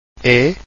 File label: e close, Portuguese vowels